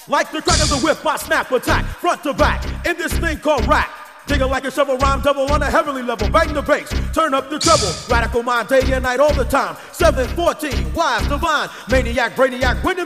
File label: rap with sax